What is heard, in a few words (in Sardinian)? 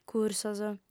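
"cursos", female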